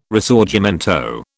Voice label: "Risorgimento", British